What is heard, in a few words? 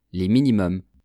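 "les minimums", French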